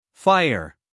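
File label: "fire", American English, male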